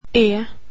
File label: "e", swedish alphabet